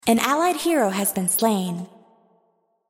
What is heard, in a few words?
Allied Hero Slain, Announcer; Unit Voices